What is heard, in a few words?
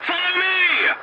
voice message follow me, english; War Thunder